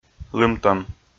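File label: "лымтӧм" Komi, male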